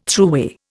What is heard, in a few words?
"throughway", Indian